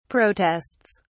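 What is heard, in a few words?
"protests", British English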